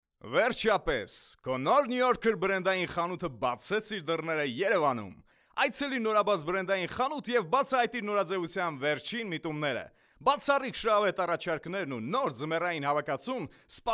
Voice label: armenian voiceover locutor armenia